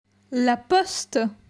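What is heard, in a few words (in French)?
"La poste", French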